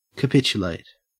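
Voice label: "capitulate" English, Australian accent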